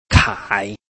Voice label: "kai3", Chinese

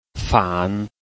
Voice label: "fan3", Chinese

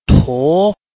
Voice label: "tuo2", Chinese

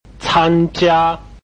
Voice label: "can1jia1", Chinese

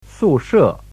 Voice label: "su4 she4", Chinese

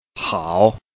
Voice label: "hao3", Chinese

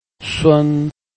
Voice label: "sun1", Chinese

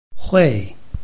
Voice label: "hui4", Chinese

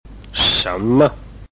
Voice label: "shen2 me5", Chinese

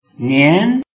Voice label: "nian2", Chinese

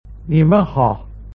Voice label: "nimenhao", Chinese

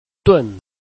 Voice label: "dun4", Chinese

